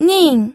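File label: "ning", fourth tone, pinyin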